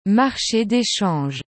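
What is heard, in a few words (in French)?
"marche des changes", French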